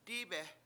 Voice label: "dIIbe7", Washo; sun